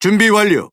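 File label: Speech Pilots Bomber Ready, Korean; World Of Warships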